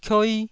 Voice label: "kioi1", taiwanese syllables

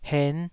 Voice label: "hen5", taiwanese

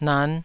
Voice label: "nan5", taiwanese

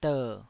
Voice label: "der3", Taiwanese syllable

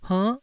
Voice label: "hernn9", taiwanese

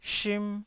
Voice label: "shim1", taiwanese Syllables

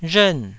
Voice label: "rhern4", taiwanese

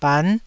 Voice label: "ban9", taiwanese syllables; 台語音節發音檔案

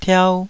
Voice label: "tiau2", Taiwanese

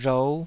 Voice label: "rhou5", taiwanese

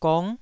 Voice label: "gong9", taiwanese syllable

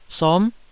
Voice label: "som9", Taiwanese syllable

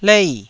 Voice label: "lei4", Taiwanese syllable

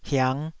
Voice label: "hiang5", Taiwanese syllable

